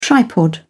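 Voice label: "tripod", British English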